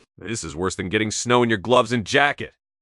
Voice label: upset, speech; fertig classic games